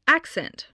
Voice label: "accent", English

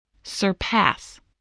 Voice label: "surpass", English

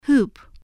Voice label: "hoop", voice spelling

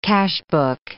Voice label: "cashbook", English

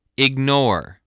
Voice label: "ignore", voice spelling

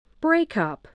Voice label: "breakup", spelling

